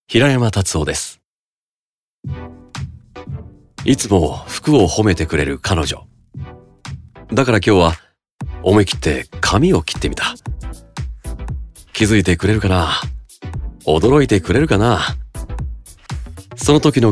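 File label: commercial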